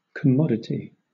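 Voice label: "commodity", British English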